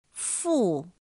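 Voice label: "fù", Chinese; pinyin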